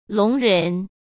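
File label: "聋人", Mandarin Chinese; hsk; chinese-flashcards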